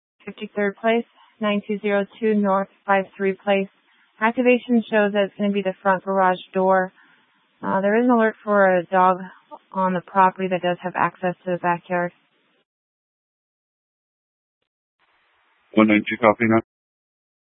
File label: Dispatch, scanner